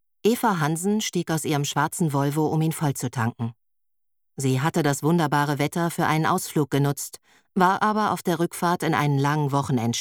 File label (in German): Erzähler Hörbuch; Hier erhältst du einen kleinen Eindruck meiner Stimme.; Verborgen